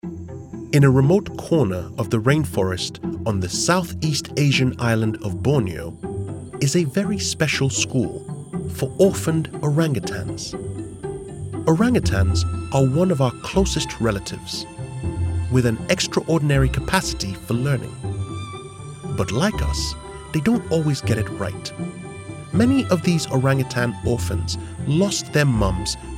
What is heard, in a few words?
Documentary and TV